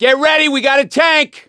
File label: heard hulk, voice; gambler